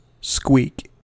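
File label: "squeak", US English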